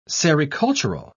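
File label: "sericultural", British English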